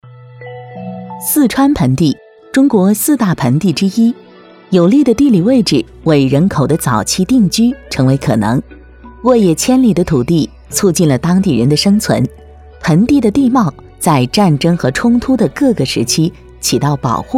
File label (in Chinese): 女 科普 样音